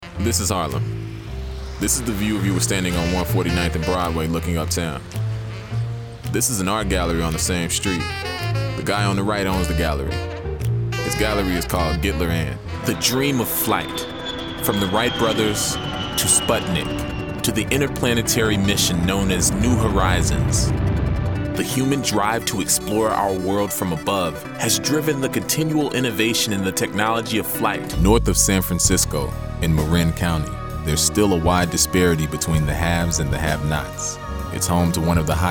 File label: narration